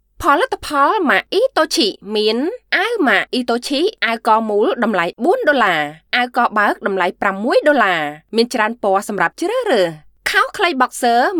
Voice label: Khmer female voice sample

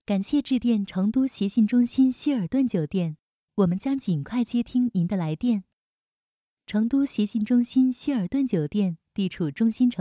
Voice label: Mandarin Female IVR Messages

